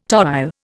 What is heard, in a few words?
"toile", Indian